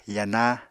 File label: "yana", Apatani